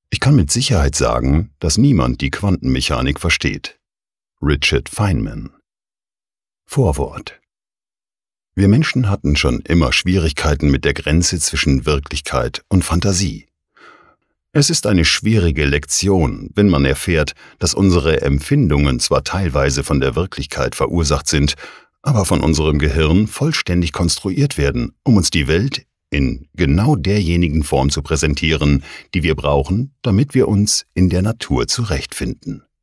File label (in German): Auszug Hörbuch; Quantenwelt; Lee Smolin